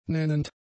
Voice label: "nennend", German; adjectives